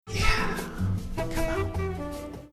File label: whispering yeah to bossa nova hold music